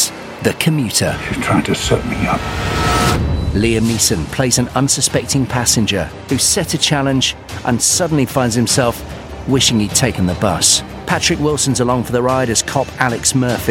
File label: action movie trail